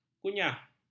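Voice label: "kunyah", Malay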